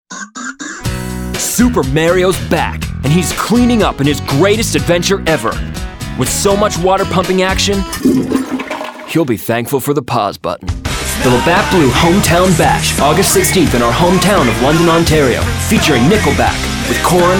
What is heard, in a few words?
commercial male